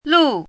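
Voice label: "lù", Chinese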